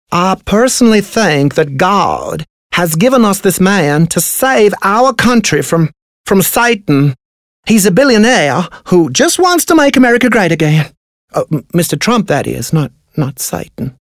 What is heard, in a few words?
English accent Southern American